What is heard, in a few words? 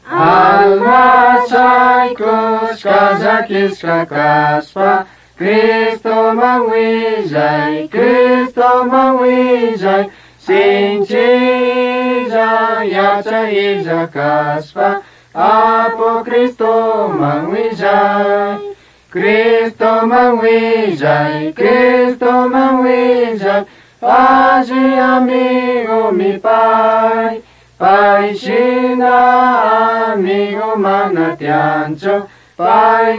words of life quichua chimborazo